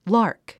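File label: "lark", English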